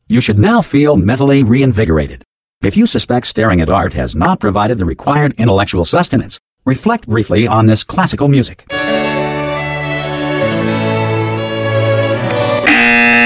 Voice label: opening exercise, announcer; Portal 2